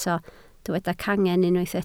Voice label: "cangen", Welsh